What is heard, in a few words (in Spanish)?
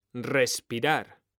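"respirar", male; mekanta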